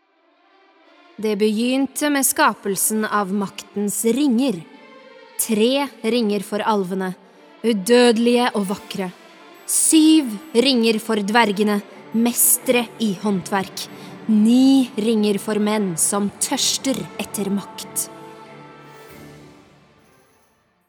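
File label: Norwegian movie trailer, female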